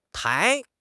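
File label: "tai2", Mandarin Chinese; HSK4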